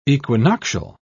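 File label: "equinoctial", British English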